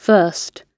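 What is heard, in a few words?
"first", British English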